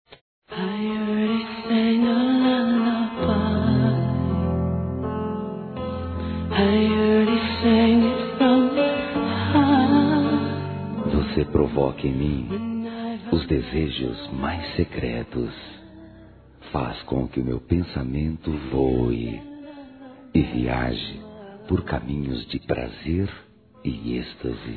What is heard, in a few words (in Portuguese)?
EROTICA, Sensuais, masc; Telemensagens